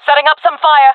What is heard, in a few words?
throwing molotov, fem; player vo; Counter Strike Model Pack; CS:GO